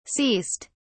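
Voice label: "ceased", British English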